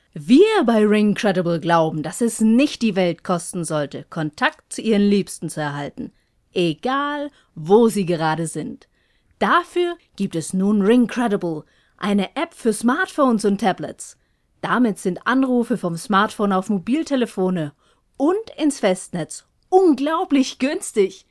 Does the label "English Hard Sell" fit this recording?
No